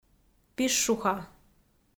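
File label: "пищуха", Komi, female; audio dict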